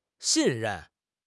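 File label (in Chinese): "xin4 ren4", Mandarin Chinese; 汉语口语新时代-初级下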